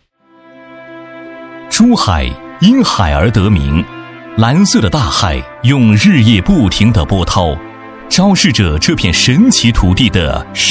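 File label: chinese mandarin male e-learning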